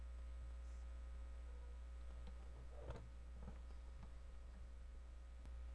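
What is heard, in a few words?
"gentle", spoken word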